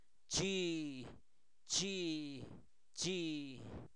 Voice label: diez, Zapoteco, santo domingo albarradas; INALI; numeros

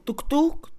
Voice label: Mixe, Mujer; ocho; Números; INALI

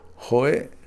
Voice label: cinco, Kakchikel; Números

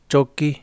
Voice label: estrella, Yaqui

